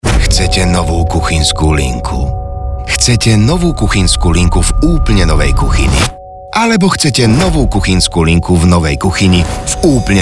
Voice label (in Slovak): radio kuchyna